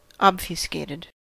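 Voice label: "obfuscated", US English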